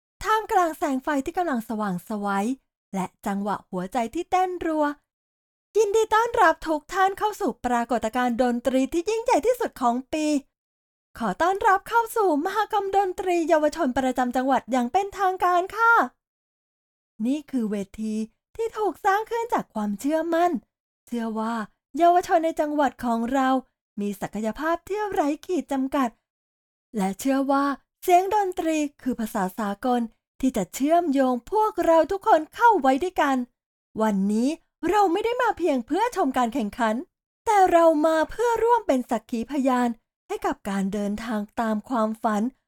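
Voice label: Youth Music Festival, Live Announcer, Concert, Friendly and approachable, Calm and soothing, Clear and articulate Female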